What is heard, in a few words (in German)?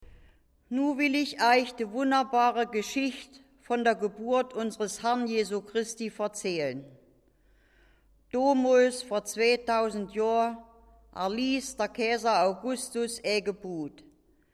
weihnachtsgeschichte im oberharzer dialekt